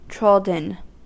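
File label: "trodden", American English; pronunciations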